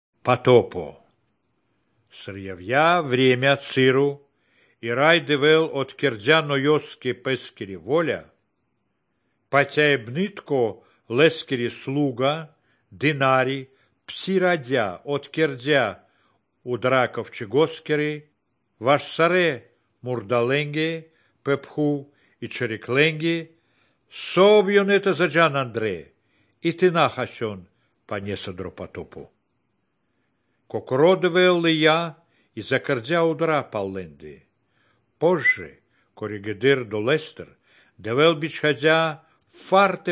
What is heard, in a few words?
good news baltic romani